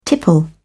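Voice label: "tipple", British English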